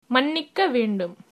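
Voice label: excuse me, Tamil, female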